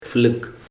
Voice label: mosquito larva, Koro; animals